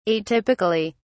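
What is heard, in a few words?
"atypically", British English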